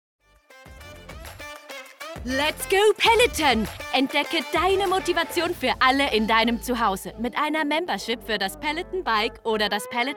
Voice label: female Swiss German and German commercial compilation